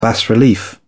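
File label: "bas relief", British English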